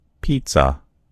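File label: "pizza", American English; gcompris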